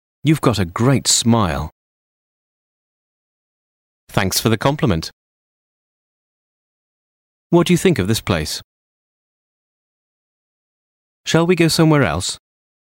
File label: English Sentences